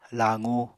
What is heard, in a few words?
"laqu", Apatani